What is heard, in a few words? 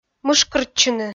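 "мышкыртчыны", Komi-Zyrian, female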